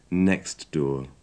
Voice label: next door, english